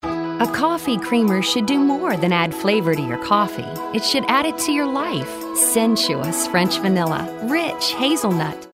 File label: friendly